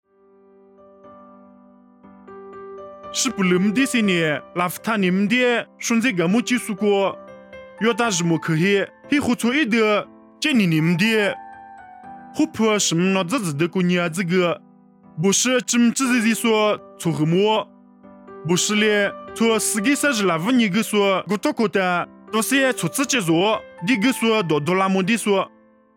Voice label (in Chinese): 凉山彝语; 以色列加利利; nuosu